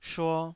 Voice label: "shuo2", taiwanese Syllables